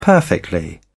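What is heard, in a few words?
"perfectly", British English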